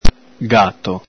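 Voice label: "gato", Venetian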